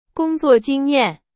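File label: Chinese, example sentence; hsk3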